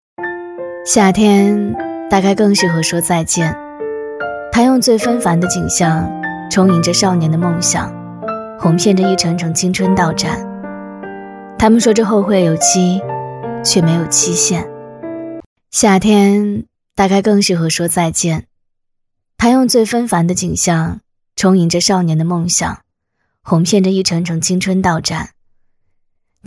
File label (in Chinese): 女-旁白-【舒缓质感女声】; 大气质感; 素人自然